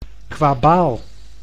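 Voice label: "kwabaal", Dutch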